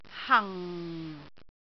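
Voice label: "hang4", Cantonese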